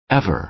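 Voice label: "ever", American English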